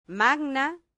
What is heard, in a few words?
"magna", Latin; santillana